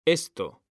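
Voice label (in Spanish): "esto", Spanish; mekanta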